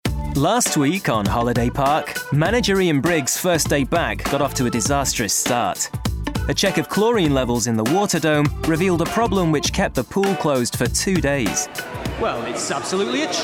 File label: Narrative; Holiday Park